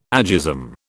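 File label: "agism", British English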